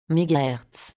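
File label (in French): "MHz", French; SvxLink; PropagationMonitor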